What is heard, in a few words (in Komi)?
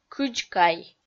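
"кыдзкай", Komi, female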